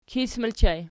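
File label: "kis" ergative plural, Archi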